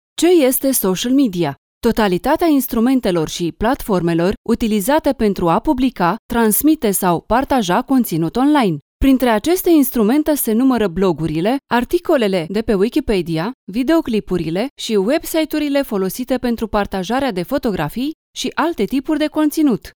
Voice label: romanian corporate videos